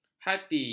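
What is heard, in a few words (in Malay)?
"hati", Malay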